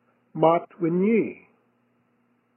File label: Admit Defeat Maat Winy He Gives Up Admits Defeat, Kumeyaay